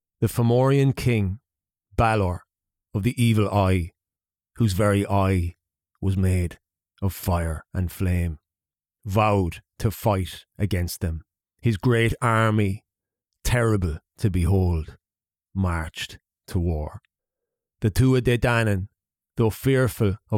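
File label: Irish English, male, voice over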